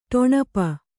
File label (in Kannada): "ಟೊಣಪ", kannada